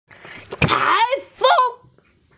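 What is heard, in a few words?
"tai feng" (typhoon), Chinese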